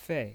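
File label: "fei" Mandarin Chinese, fourth tone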